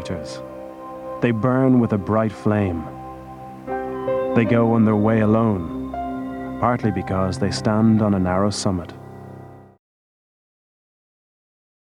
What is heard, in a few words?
NARRATION BIRD CHANNEL